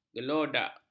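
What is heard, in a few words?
"gelodak", Malay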